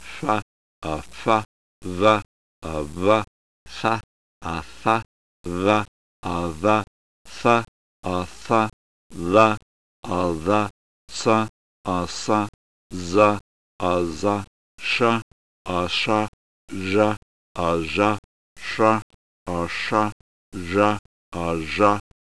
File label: fricative